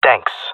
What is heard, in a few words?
thankful, jungle male; player vo; Counter Strike Model Pack; CS:GO